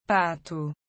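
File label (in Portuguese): "duck", Portuguese; FoodChain.activity; pt BR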